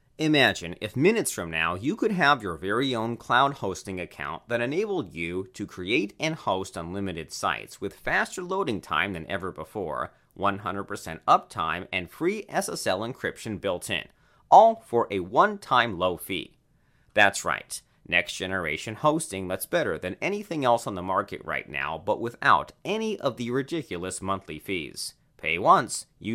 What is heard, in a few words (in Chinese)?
外籍男英 外语 美式英语 自然